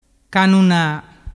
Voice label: "cannuna", dialettu

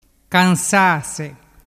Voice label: "cansase", dialettu

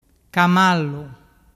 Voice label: "camallu", dialettu

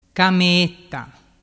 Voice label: "cameetta", dialettu

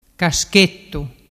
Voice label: "caschettu", dialettu

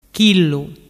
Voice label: "chillu", dialettu

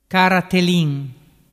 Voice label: "caratelin", dialettu

